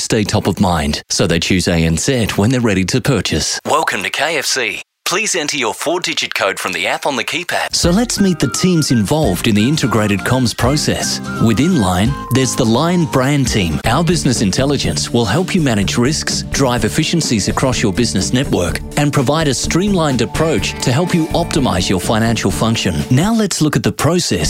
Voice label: english australian narration; australian voice actors

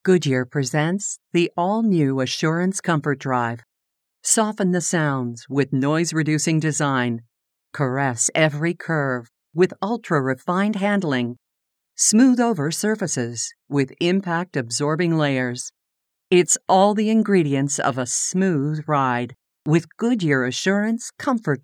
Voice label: english canadian automotive

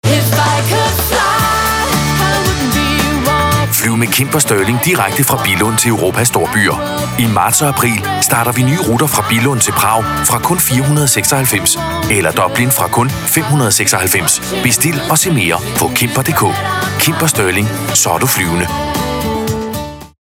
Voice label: danish commercial

